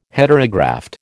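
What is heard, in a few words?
"Heterograft", American English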